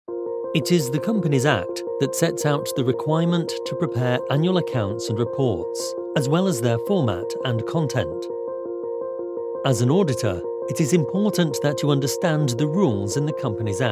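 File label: English, male, ELearning, voice over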